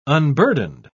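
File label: "unburdened", British English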